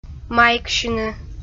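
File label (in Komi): "майксьыны", Komi, female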